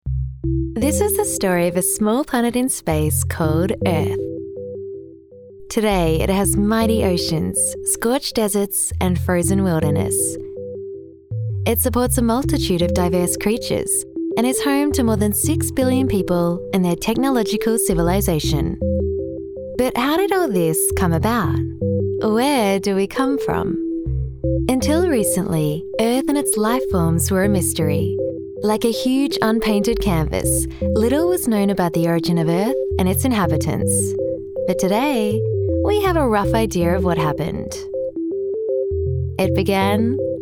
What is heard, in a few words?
Narration